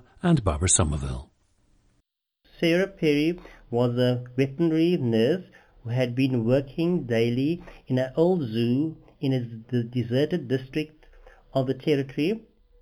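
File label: south africa, dialects of English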